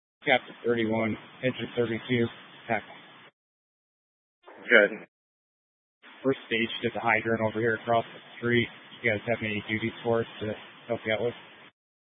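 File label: Incident Tac 1, scanner audio recording, Grand Junction, Mesa County, Colorado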